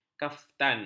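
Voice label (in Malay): "kaftan", Malay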